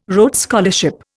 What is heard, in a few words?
"Rhodes Scholarship", Indian